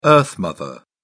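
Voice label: "earth mother", British English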